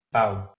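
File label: "ph2u", Proto-Indo-European; eastern origins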